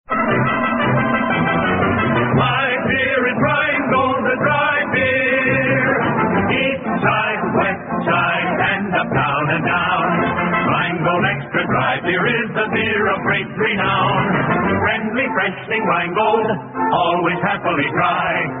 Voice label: vintage commercials beer; rheingold

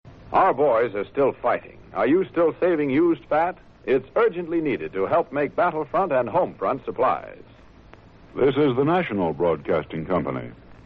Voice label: promo; ww2 promos and commercials; fat drive

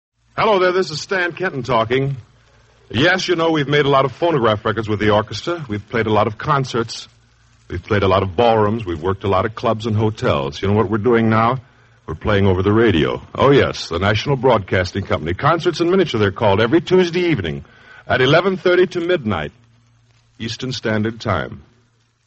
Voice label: promos for concert in miniature

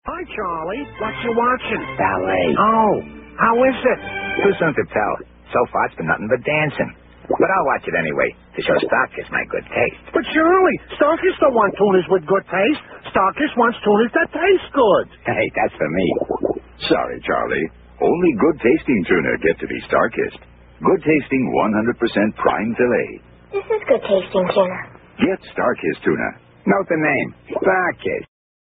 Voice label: vintage commercials tuna; starkist

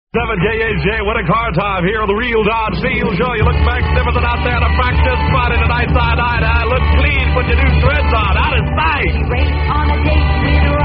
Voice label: sportswear clothing ad, radio spots; russ; psychedelic promos and radio spots

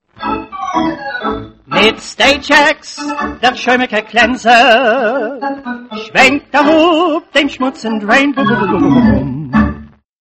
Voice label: yiddish radio commercial; ajax; the yiddish radio project

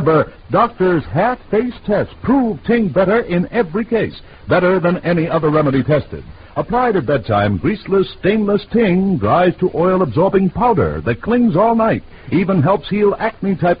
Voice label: vintage commercials pimple cream; ting

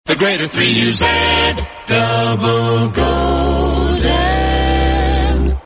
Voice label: australian otr promos and idents; double golden

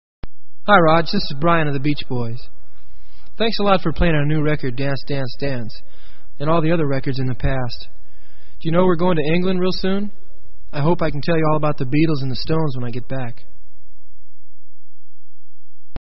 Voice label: promo; psychedelic promos and radio spots

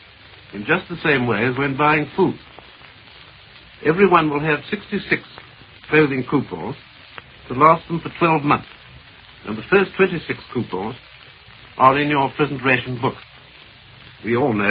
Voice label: radio news bbc clothes rationing announcement

